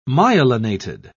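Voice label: "myelinated", British English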